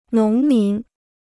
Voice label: "农民", Chinese

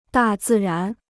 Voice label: "大自然", Chinese

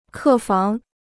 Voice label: "客房", Chinese

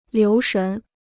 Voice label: "留神", Chinese

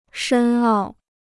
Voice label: "深奥", Chinese

